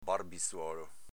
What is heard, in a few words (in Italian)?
"barbizuolo", dialetto veneto